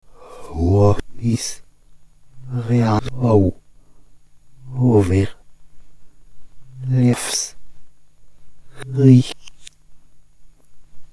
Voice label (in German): zauberspruch spell reverse lautend; marys spell